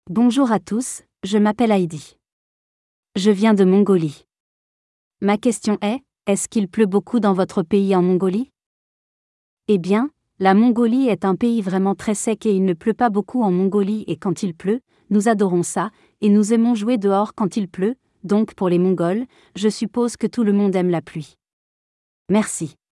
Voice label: Audiobook Voice